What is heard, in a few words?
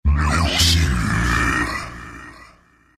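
attack, chinese; dota2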